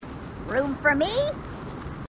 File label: grunt crazy; h2dialogue